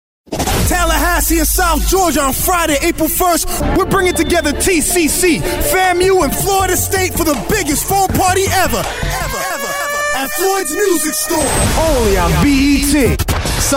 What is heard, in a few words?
concert radio ad